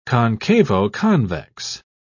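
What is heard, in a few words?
"concavo-convex", British English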